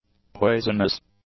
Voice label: "poisonous", english; adjectives